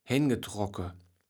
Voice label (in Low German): "henngetrocke", kaevels platt